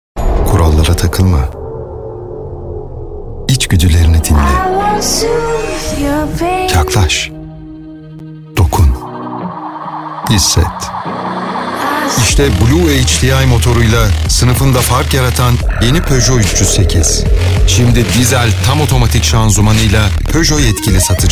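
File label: Turkish male; lektoring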